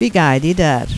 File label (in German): wie geit, platt